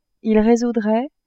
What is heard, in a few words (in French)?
"ils résoudraient", French